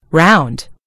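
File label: "round", American English; gcompris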